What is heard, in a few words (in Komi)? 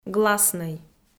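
"гласнӧй", Komi, female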